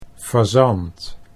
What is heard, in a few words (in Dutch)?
"fazant", Dutch